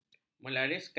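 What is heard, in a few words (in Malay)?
"melariskan", Malay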